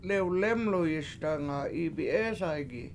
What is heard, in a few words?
lEw lEmluyiSdanga 7IIbi7EEsay7gi While We Were Eating Nobody Came, Washo